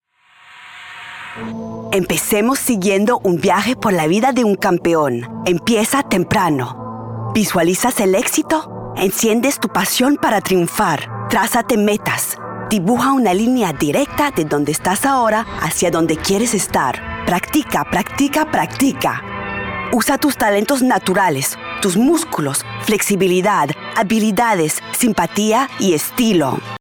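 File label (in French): voix espagnol; Trace Sports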